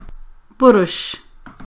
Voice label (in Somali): "Burush", Somali; Brush